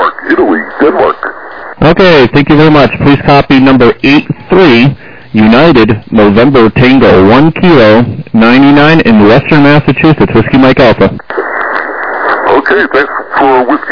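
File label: lower sideband 7MHz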